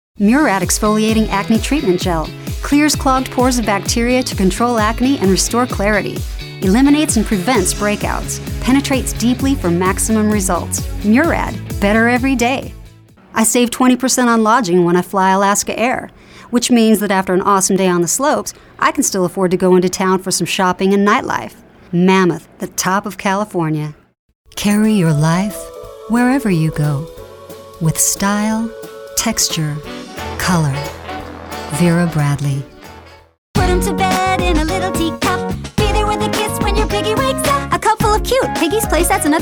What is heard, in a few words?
Commercial VO